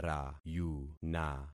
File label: "rayuna", concat syllables; Affixing experiment